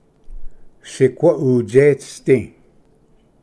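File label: "siqua utseisdi", Cherokee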